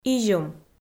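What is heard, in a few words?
"изюм", Komi, female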